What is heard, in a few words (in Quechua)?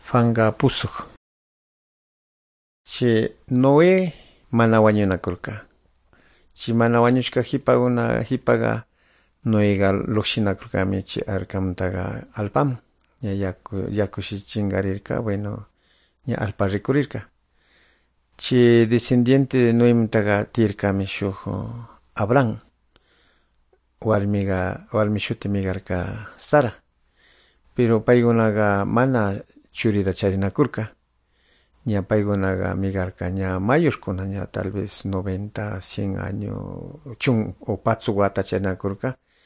good news quichua de calderon